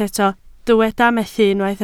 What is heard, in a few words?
"methu", Welsh